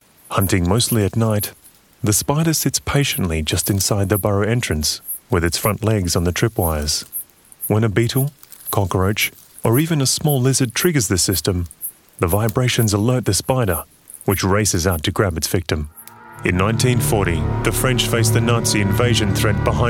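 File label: Australian English, male, narration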